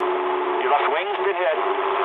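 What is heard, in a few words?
English left wing mood low; speech; War Thunder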